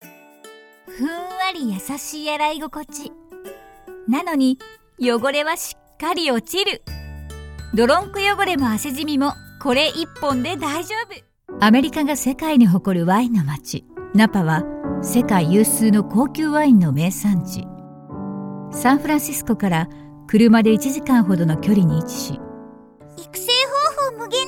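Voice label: Japanese, Commercial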